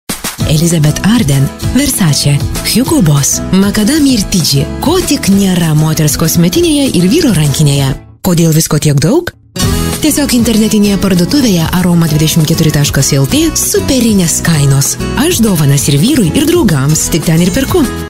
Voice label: lithuanian commercial; Litauisch; Litauen